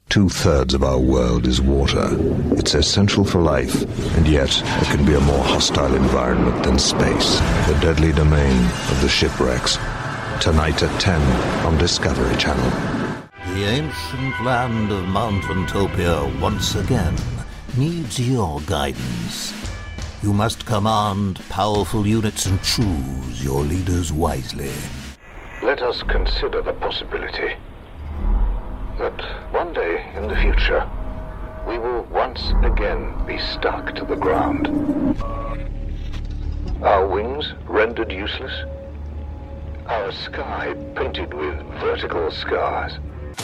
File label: TRAILER